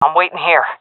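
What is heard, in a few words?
waiting here, female; vo; Counter Strike Model Pack; CS:GO; seal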